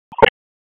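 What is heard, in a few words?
EMS Dispatch, p25; wake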